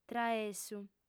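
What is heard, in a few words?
"traessu", Sardinian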